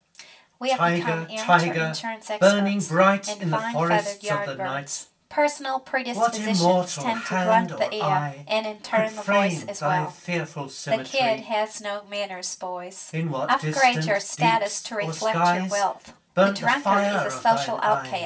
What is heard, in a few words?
mic, speech only; Separated sources